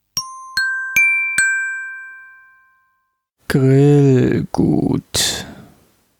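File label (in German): "Grillgut", German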